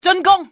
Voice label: advance, cantonese; XBOX; enemy